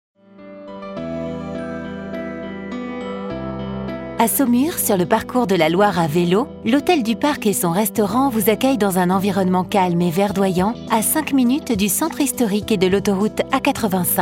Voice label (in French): Attente telephonique; HOTEL DU PARC Saumur